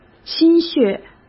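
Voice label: "心血", Mandarin Chinese; chinese-flashcards; hsk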